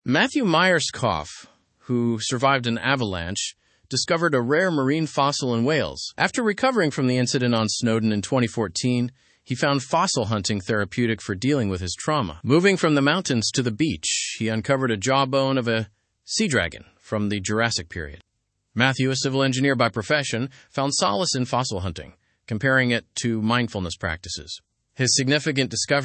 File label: learn english through news upper intermediate; mountaineer finds ancient sea dragon fossil